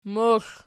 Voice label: "moxl", Archi

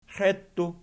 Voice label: "xeittu", Archi

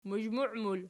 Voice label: "muzhmui", Archi

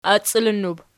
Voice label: "accilinnub", Archi

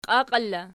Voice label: "qiaqiera", Archi

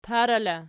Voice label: "parala", Archi

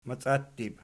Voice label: "maciattib", Archi

